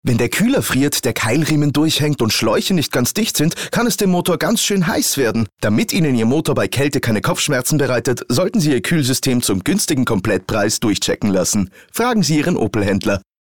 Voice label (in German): Mann